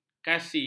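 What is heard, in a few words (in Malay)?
"kasi", Malay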